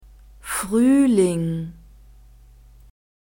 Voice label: "fruehling", German; masculine